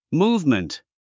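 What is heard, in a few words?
"movement", English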